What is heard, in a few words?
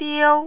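"diu", first tone, Mandarin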